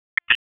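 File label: Raleigh Police Downtown District Tactical, p25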